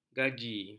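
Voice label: "gaji", Malay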